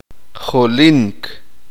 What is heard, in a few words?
uspanteko; correr